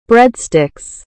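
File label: "bread sticks", English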